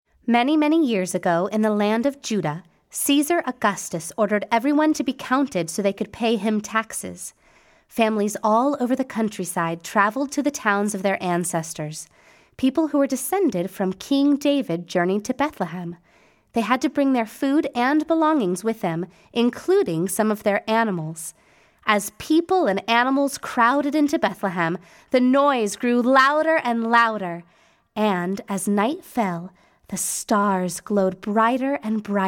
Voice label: Opening Narration; starry night noisy night